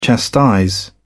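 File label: "chastise", British English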